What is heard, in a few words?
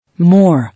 "More", English